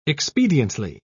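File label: "expediently", British English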